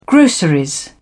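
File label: "grocery", British English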